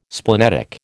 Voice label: "splenetic", American